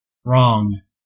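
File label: "wrong", American English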